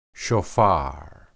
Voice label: "shophar", Hebrew

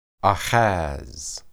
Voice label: "ahaz", Hebrew

